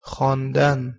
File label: "khandan", Persian